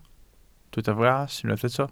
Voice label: "fras", Welsh